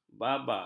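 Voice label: "babar", Malay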